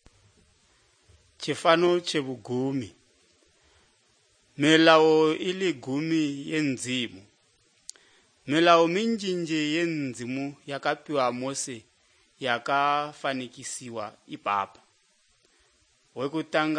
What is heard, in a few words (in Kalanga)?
good news kalanga lilima, drama